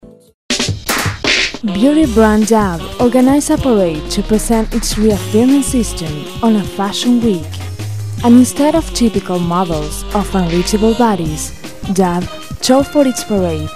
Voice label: eng; locucion